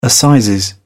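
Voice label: "assizes", British English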